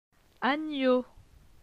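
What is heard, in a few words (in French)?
"Agneau", French; phonetique; les consonnes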